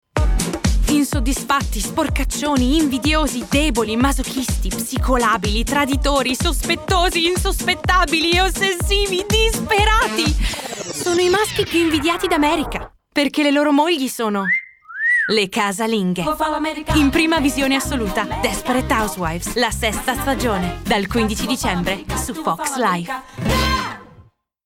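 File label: Italian, Commercial; GoLocalise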